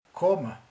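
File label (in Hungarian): "jön", Hungarian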